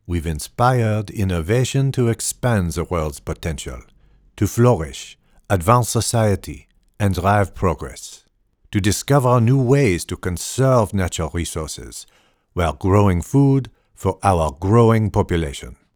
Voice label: Corporate French